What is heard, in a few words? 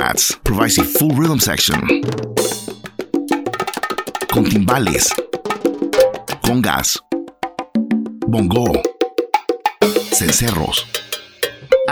salsa beats english spots